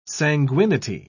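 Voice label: "sanguinity", British English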